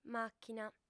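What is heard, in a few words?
"màchina" Sardinian, female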